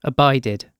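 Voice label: "abided", British English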